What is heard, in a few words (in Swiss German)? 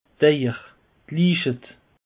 "eier", alsace; peche